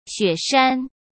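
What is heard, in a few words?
"雪山", Mandarin Chinese; chinese flashcards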